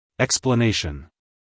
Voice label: "explanation" US English, male; everyone-can-use-english

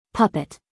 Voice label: "puppet", us female; everyone-can-use-english

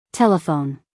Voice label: "telephone", us female; everyone-can-use-english

